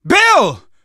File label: hunter pounced, survivor voice; Left 4 Dead 2; Source Engine; video games; manager